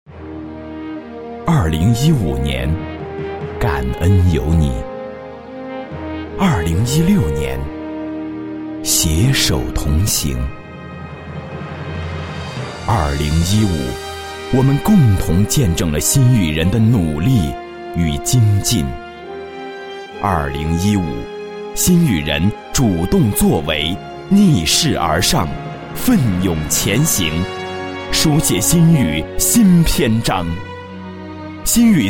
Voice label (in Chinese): 男 专题 沉稳有力, 国语男声配音; 磁性专题; 新宇年会